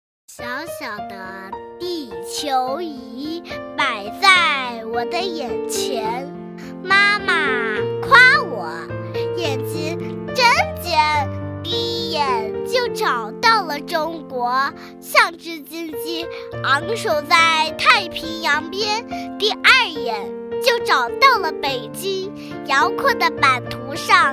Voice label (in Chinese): 女, 童声